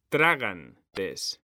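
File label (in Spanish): "tragan", Spanish; mekanta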